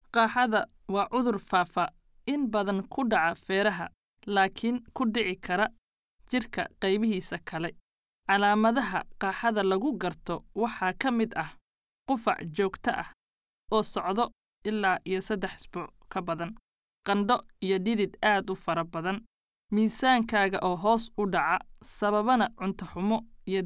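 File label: Somali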